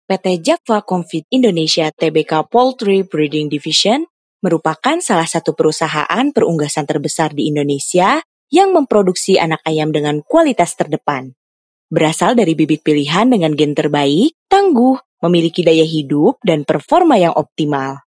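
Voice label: formal Indonesian voice over, female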